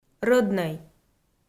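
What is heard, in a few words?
"рӧднӧй", Komi, female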